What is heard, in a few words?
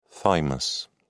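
"thymus", British English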